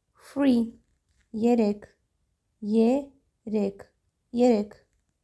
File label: 3 erek, armenian